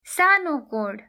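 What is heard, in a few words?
"small angle", English; grade3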